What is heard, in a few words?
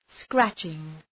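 "scratching" English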